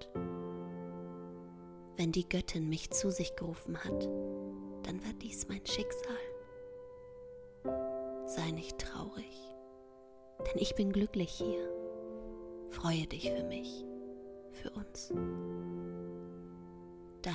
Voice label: Charakter Geliebter

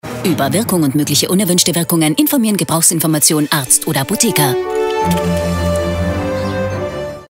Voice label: Sprecherdemo TV; Voltatolforte

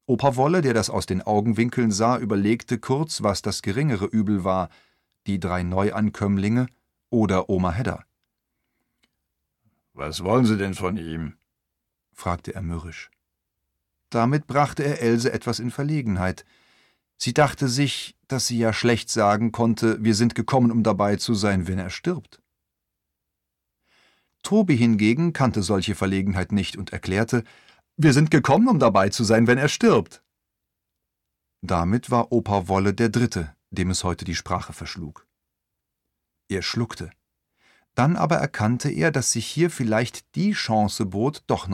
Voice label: hoerbuch; nachdemtodlinks; LOFT VOICES

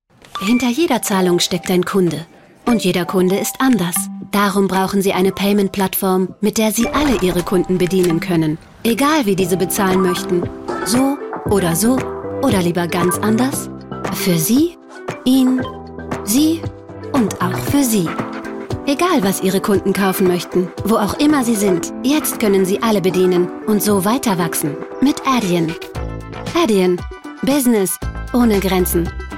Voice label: Werbung Image, Commercial (Werbung), German; Adyen

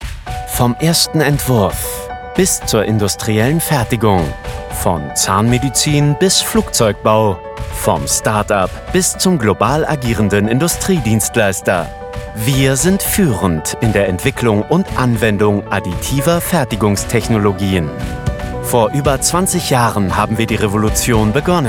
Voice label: Imagefilm 3D Druck

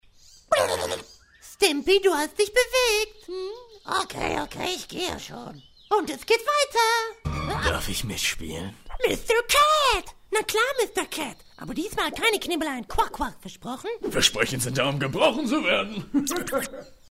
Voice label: Trickstimmen

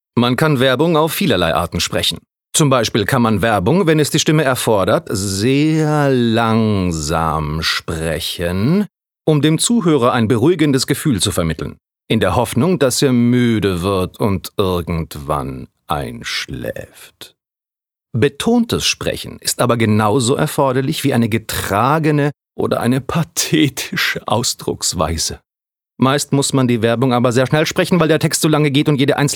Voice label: Erzaehlung

